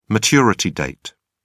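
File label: "maturity date", British English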